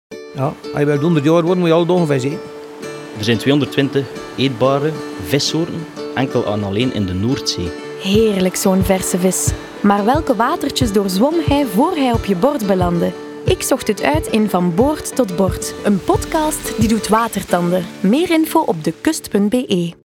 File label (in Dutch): radiospot; WESTTOER